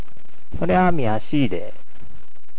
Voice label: "miyasui", HIROSHIMA ben; みやすい やさしい 大人から子供まで使う非常にベーシックな用語; 広島弁